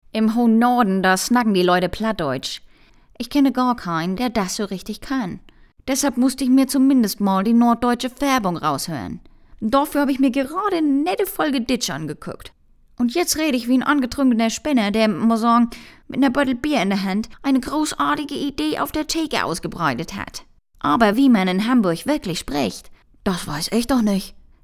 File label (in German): Norddeutsch